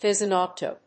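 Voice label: "Thysanoptera", English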